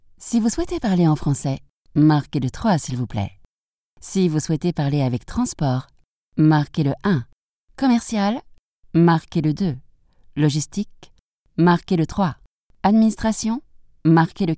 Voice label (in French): Telephone, voix off femme